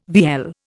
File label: "vielle", Indian